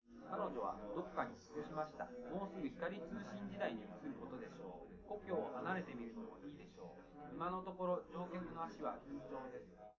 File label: male liverec; SiSEC11; underdetermined